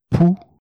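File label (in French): "pou", French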